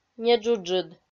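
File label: "неджуджыд", Komi-Zyrian, female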